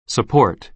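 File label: "support", American English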